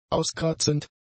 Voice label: "auskratzend", German; adjectives